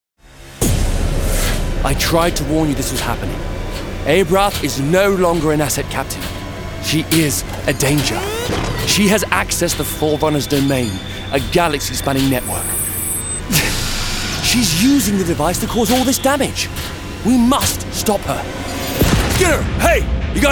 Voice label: male multiple accents gaming compilation; english and usa